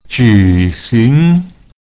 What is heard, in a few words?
"ju3xing2", Chinese